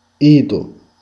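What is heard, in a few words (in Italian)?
"dito", Italian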